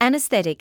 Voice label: "anaesthetic", medical english; ˌæn.əsˈθet.ɪk/ an-uhs-THET-ik

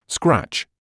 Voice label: "scratch", English

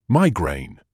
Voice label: "migraine", English

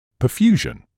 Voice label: "perfusion", English

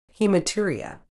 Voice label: "haematuria", medical English

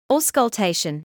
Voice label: "auscultation", medical english; ˌɔːs.kʌlˈteɪ.ʃən/ ORS-kul-TAY-shuhn

